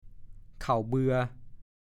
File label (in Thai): "เข่าเบือ", ภาษาโคราช